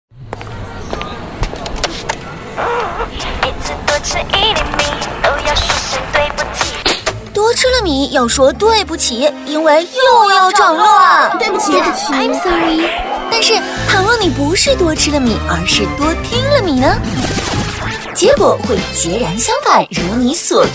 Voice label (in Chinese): 女 广告配音 电台欢脱 调皮